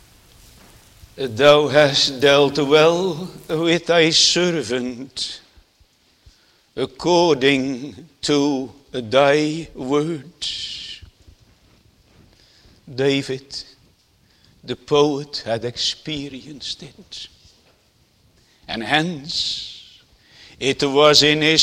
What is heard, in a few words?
Sermon; The Lord's Incomprehensible Goodness